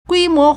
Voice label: "gui1mo2", Chinese